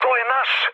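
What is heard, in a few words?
Serbian player friendly fire mood low; speech; War Thunder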